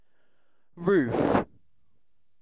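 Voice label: "roof", British English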